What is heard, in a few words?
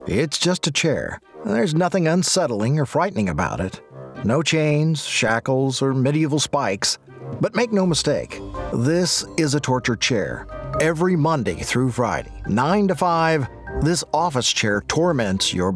Commercial